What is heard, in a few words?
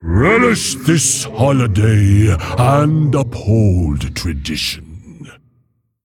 Male Mogu HAPPY HOLIDAYS